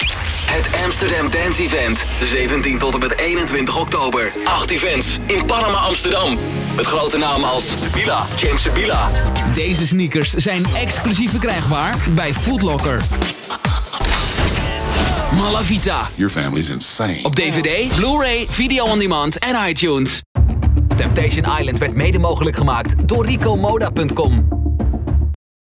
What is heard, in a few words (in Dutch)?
stijl stoer